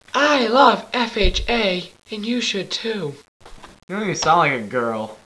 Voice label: girl